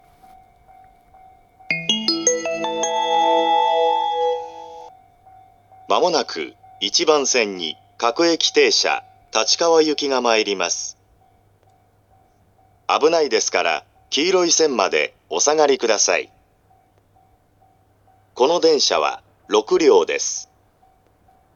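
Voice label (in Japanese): 接近放送, ATOSタイプF(男声), 青梅線, 簡易英語追加前の放送です。; 各停立川行 6両; 牛浜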